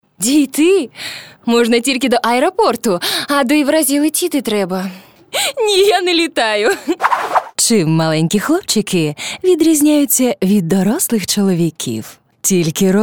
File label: UKR